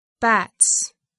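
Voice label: "bats", English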